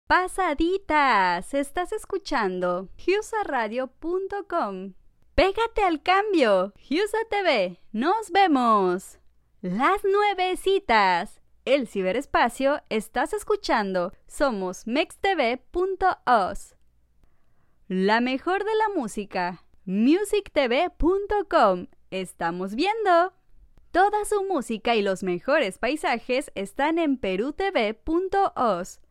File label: Spanish (LA), Female